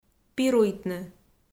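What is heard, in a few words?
"пируйтны", Komi, female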